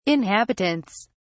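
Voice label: "inhabitants", British English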